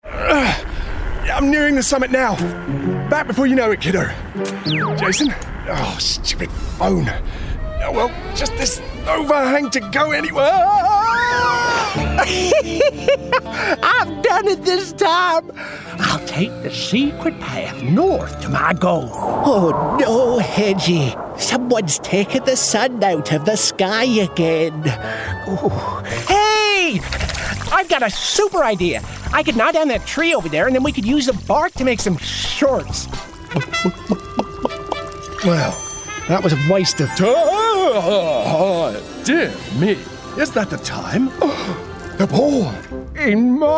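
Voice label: Animation Character, New Zealand English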